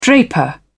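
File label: "draper", British English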